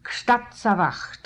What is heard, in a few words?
"Kshtatsavacht", pronounciations; Fourth Way; Beelzebub